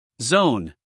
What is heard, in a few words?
"zone", us male; everyone-can-use-english